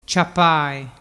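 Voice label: "ciapae", Vernazzese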